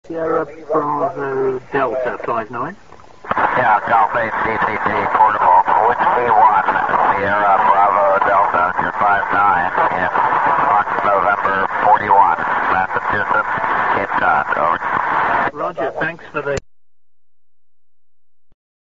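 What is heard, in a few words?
amateur radio contact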